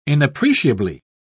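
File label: "inappreciably", British English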